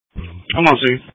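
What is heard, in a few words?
Police Patrol (Dispatch)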